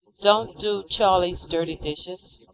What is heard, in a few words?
speech est